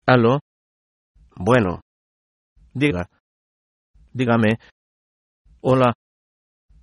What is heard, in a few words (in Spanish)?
hello, es